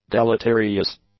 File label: "deleterious", english; adjectives